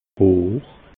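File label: "hoch", Alsatian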